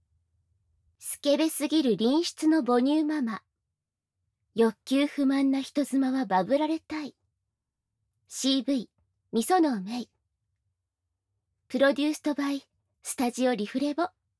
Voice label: 标题朗诵

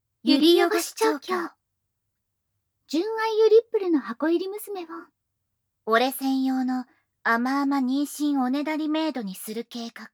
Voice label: 标题 朗读; 无SE

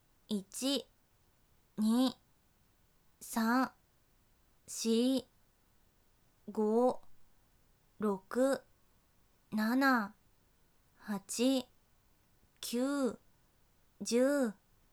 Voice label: 普通10次, 女生为你进行健身计数的语音, 少女; 仅计数

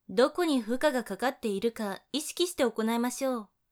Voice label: 哪里受到了负荷, 女生为你进行健身计数的语音, 姐姐

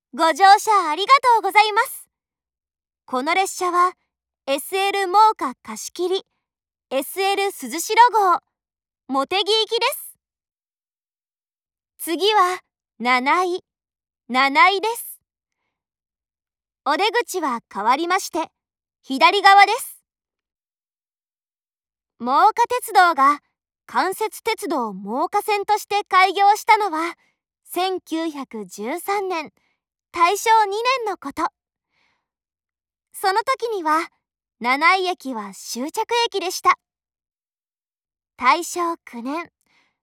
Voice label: 益子站發車後 廣播, 萬岡鐵路社内放送; 茂木方向

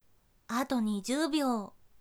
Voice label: 还剩20秒, 女生; 少女; 计时30秒

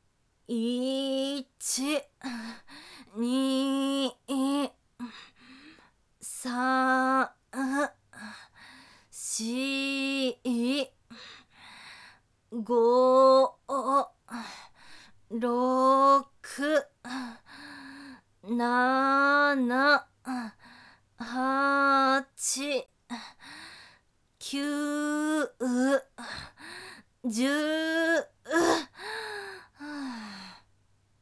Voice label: 慢10次, 女生为你进行健身计数的语音; 俺娘; 仅计数; 一起计数

